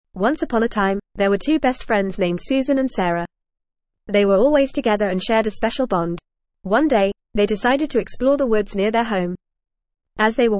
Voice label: ESL Short Stories for Kids FAST reading The Magic of Friendship